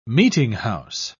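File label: "meetinghouse", English, British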